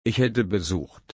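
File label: "besuchen", German, slow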